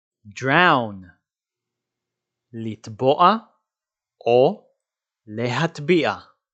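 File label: "drown" English, "לטבוע להטביע" Hebrew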